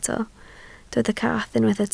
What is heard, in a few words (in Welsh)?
"cath", Welsh